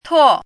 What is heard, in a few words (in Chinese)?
"tuo4", pinyin